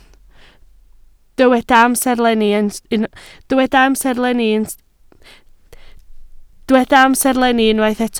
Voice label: "amserlenni", Welsh